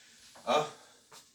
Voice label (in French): "ah" exclamé; Bruitages